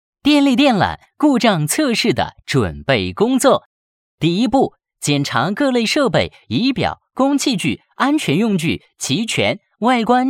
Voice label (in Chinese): 电网课件高压电缆故障探测技术青年